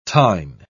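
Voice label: "tine", British English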